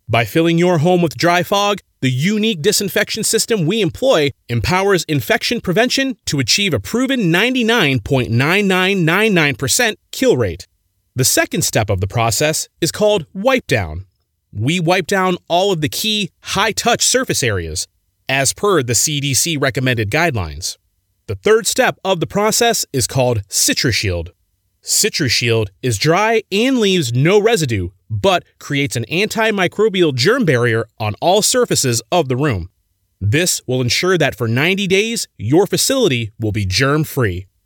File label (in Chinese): 外籍男英 外语 美式英语